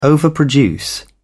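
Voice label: "overproduce", British English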